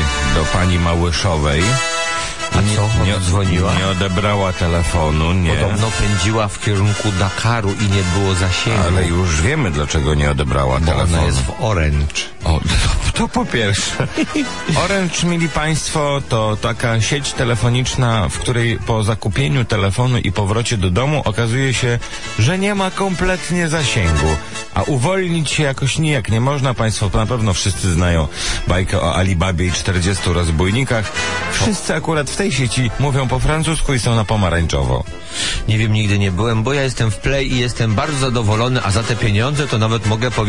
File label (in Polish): RADIO poranny fragment; ESKA ROCK